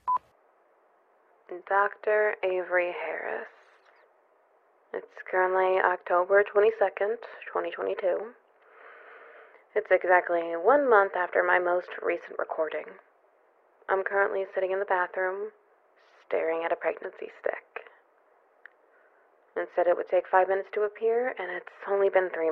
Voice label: Voice Changer